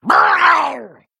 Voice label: male murloc attack

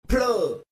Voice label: pluh stereo double

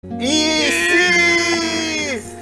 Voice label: eeeeasyyy

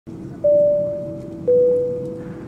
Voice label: pilot announce

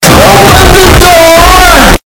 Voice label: open the door bass

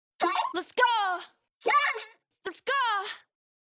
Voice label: gaming voice

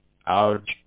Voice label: ouch voice

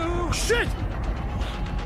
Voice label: uuuuh sh t

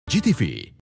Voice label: logo with the voiceover; indonesia; gtv

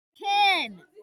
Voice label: yes, hebrew

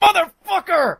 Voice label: negative vocalization, jeers; scout

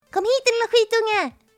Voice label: swedish

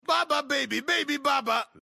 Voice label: baby

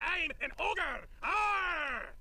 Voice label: im an ogre aaarrrrr sped up

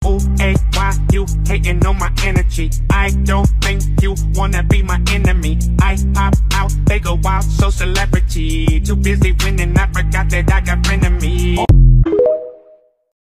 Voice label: rappin

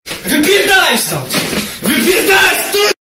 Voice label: angry polish kid

